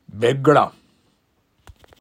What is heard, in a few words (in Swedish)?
"glo", daladialekt; gagnsmål